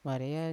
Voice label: "warea" shore, Yawa